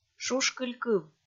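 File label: "шушкыль кыв", Komi, female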